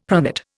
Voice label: "pronate", Indian; Dictionary